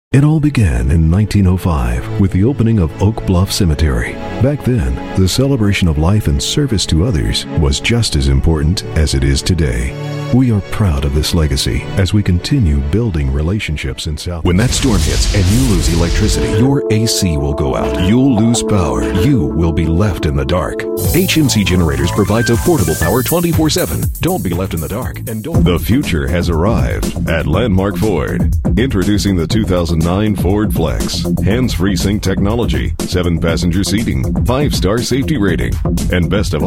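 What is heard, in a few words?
English US, male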